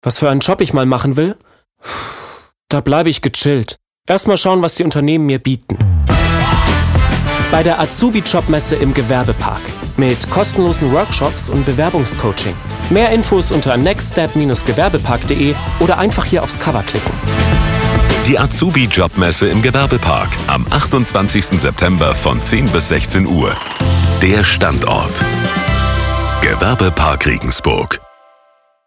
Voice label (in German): Azubi Jobmesse Spotify Spot; Gewerbepark; Regensburg; corporate sound